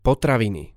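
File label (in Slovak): "potraviny", Slovak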